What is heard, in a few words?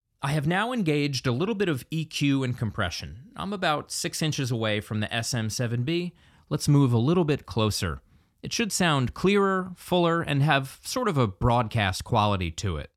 Shure SM7B